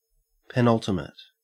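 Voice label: "penultimate" English, Australian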